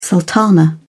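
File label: "sultana", British English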